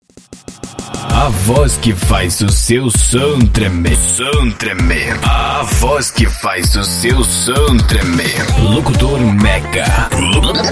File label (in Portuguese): vinhetas abertura